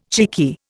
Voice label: "cheeky", Indian